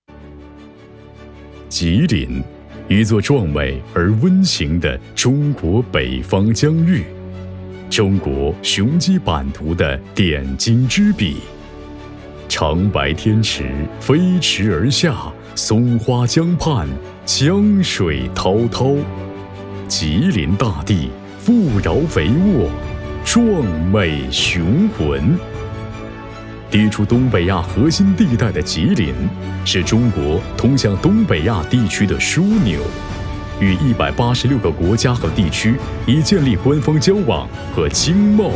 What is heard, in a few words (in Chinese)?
宣传片试音男; 中粮可口可乐